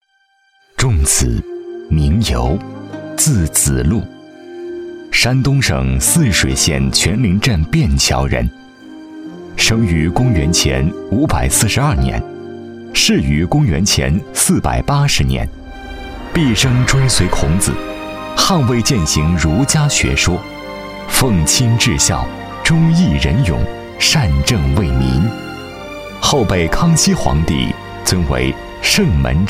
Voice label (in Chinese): 男 试音